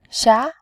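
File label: "xia", Mandarin Chinese, tone 2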